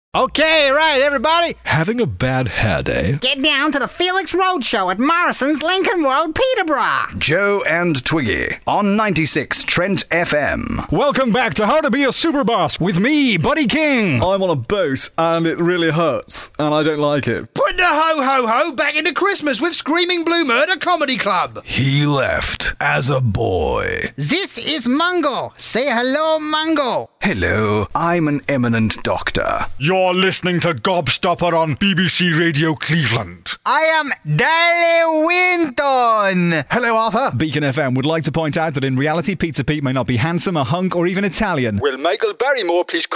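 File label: commercials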